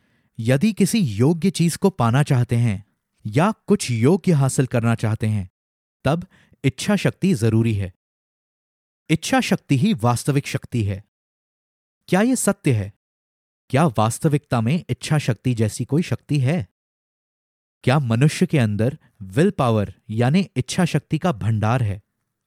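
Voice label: Hindi narration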